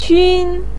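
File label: "qun", Chinese pinyin, first tone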